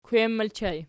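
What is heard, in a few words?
"kwem" ergative plural, Archi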